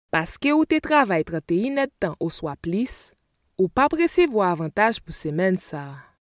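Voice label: Haitian Creole, General; GoLocalise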